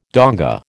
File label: "donga", American